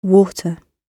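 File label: "water", British English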